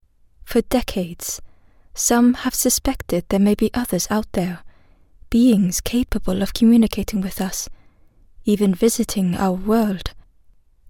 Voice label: Norwegian Home studio; Scandinavian

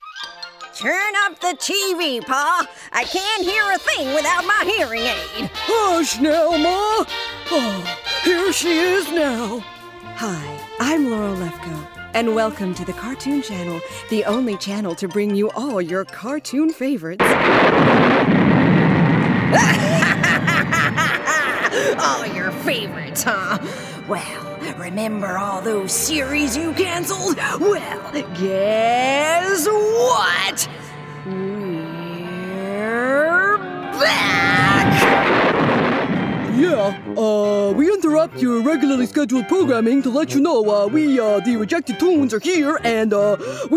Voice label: Animation, female

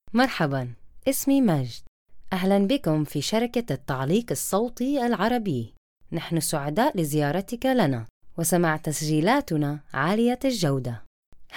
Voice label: Arabic

